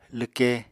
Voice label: "lwke", Apatani